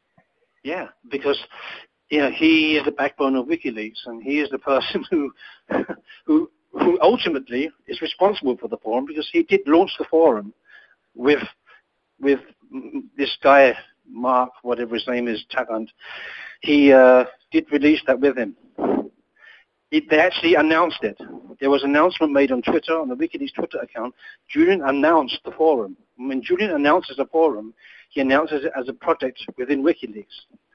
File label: pretending to be Irish